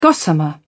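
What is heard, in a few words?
"gossamer", English, British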